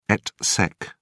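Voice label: "et seq", British English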